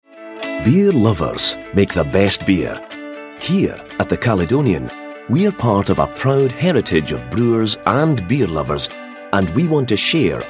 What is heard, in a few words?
narration